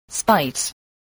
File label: "spite", voice